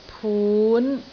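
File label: "pun5", Cantonese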